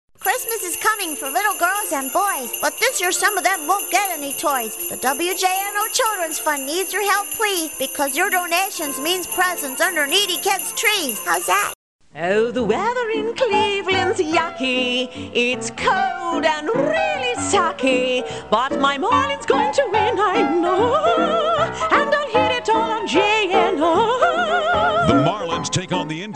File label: Character Voice